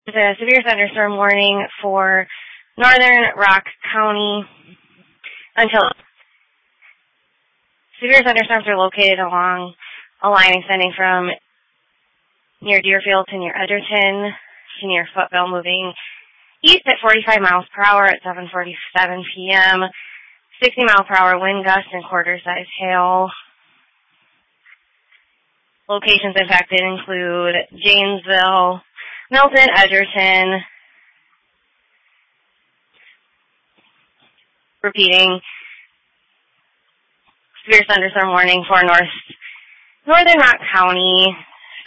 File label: Police Tactical Encrypted